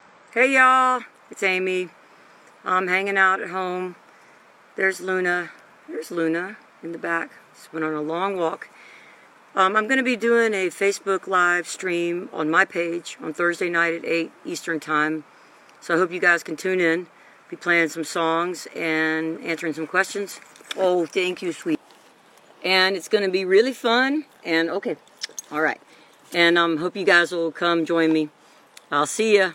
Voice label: promo

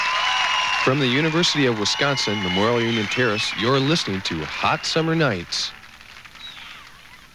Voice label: station break

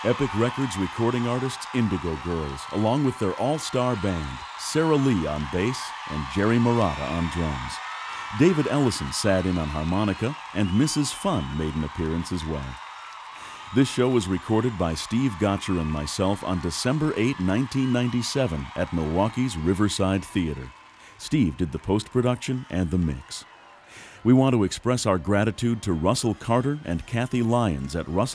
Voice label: announcer; riverside theatre; milwaukee, wisconsin

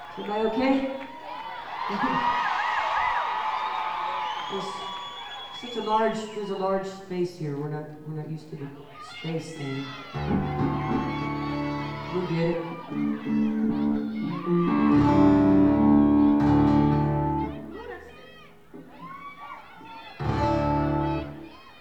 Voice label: talking with crowd; universal amphitheatre; los angeles, california

